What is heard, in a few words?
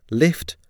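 "lift", English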